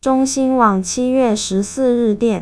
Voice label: synthesized; fast speech2; AISHELL3